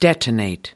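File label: "detonate", American English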